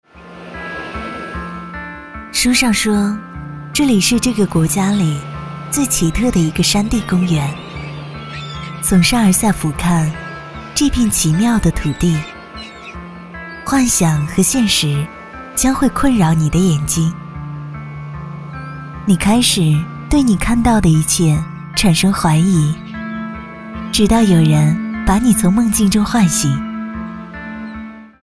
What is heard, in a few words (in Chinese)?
女 宣传片 旅游 自然; 我在贵州等你